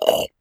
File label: Amanita Exhausted